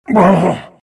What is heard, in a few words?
pain; Silencer